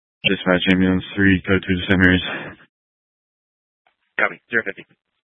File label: Fire Department Dispatch; Grand Junction